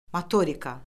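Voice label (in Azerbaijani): "motorika", Azerbaijani; Orfoqrafiya Lüğəti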